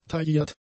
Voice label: "tailliert", German; adjectives

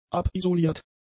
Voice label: "abisoliert", German; adjectives

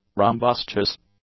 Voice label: "rumbustious", English; adjectives

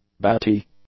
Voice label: "batty", English; adjectives

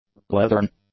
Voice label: "leathern", English; adjectives

